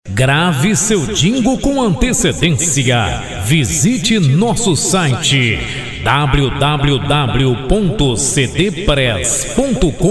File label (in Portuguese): jingle politico; adelson valadares